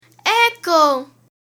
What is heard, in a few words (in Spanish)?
Echo; transito aereo; alfabeto Aeronautico